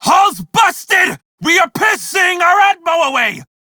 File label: Crew See Breach, Male; Dialog; en; Warframe; Railjack Crew; Red Veil